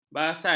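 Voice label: "basal", Malay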